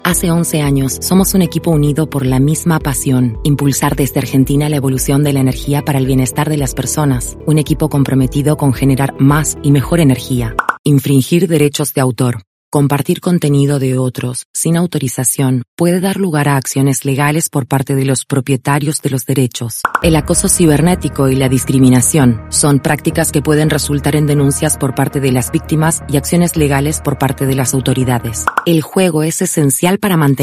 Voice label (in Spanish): neutro, espanol neutro